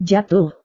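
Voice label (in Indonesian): "jatuh", Indonesian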